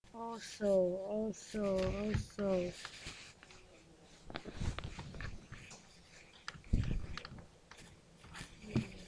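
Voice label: "also", spoken word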